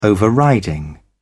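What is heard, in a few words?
"overriding", English, British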